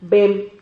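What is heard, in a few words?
"bem", Spanish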